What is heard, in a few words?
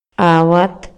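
Maya, male; HABLA Y LENGUAJE; INALI; MayaYuc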